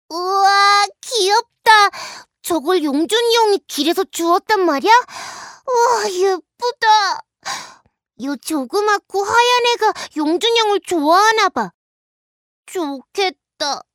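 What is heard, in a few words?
Korean, female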